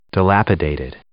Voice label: "dilapidated", English

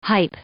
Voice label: "hype", English

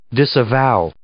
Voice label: "disavow", English

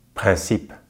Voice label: "principe", French

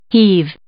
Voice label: "heave", English; verb

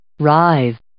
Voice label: "writhe", English

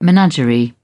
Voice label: "menagerie", British; ɚ - əɹ; English

